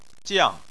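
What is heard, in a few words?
"jiang", Chinese, fourth tone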